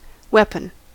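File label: "weapon", American English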